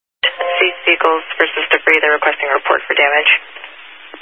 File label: Highway Patrol, Phoenix Metro East Area, scanner